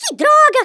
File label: "boring", Portuguese; Worms Armageddon